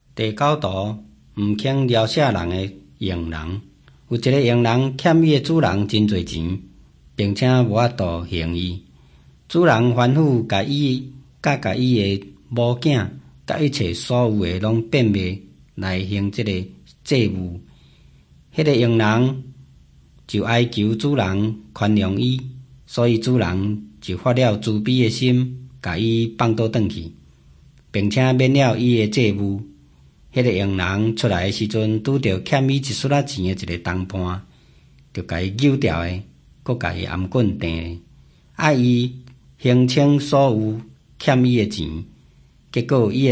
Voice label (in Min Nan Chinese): taiwanese, drama